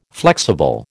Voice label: "flexible", American